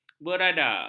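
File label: "berada", Malay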